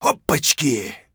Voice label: scav mutter, voice; Escape from Tarkov; scav1

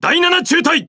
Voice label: Jp Speech Pilots Torpedo ID; World Of Warships

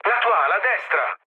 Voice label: right wing mood low, speech it; War Thunder

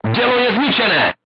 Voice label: voice message weapon destroyed, crew tanks czech

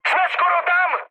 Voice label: near waypoint, speech cze, mood high; War Thunder

